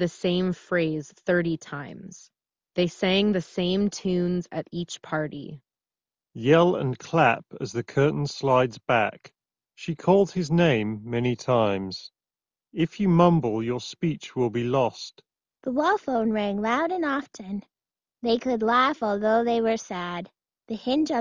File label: Wireless Ideal microphone sample; Corsair HS65